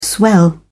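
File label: "swell", British English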